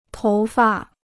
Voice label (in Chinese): "头发", Chinese